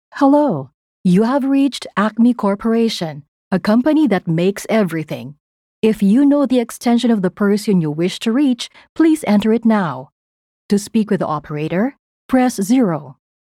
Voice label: English, Professional, Clear

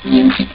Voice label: robo talk

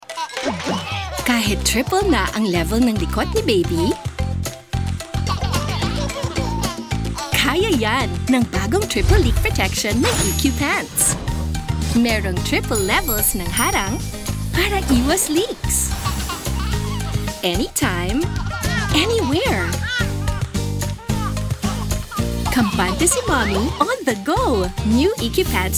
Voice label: Taglish (Young Mother)

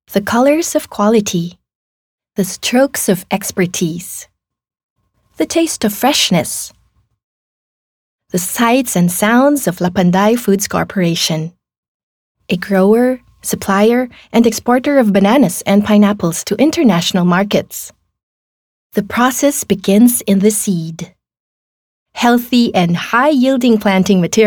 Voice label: English, Corporate

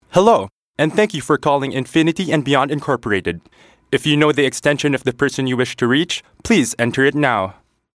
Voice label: English, voice prompt

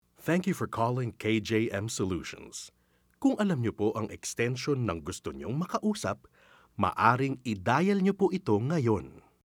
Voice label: TAGLISH (Proficient, Natural)

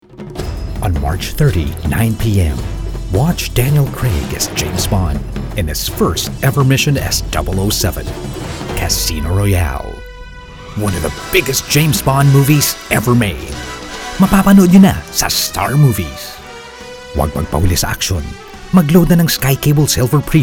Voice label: English, Trailer

